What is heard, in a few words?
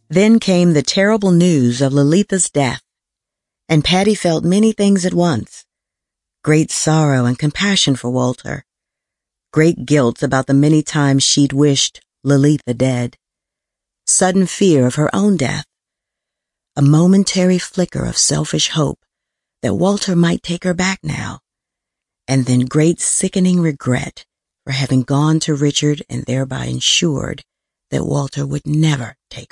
NARRATION; Jonathan Franzen